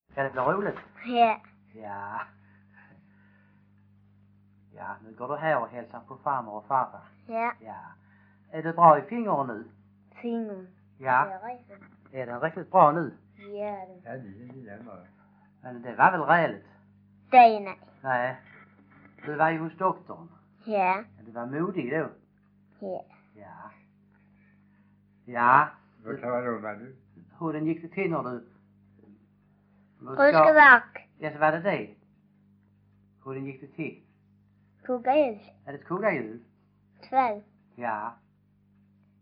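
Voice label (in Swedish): Stehag Västra Sallerup Skåne, Svenska sagor dialekter; Folkminnen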